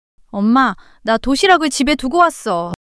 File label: fluster